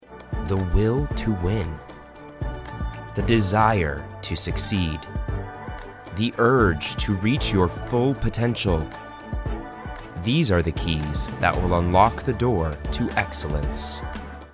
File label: USA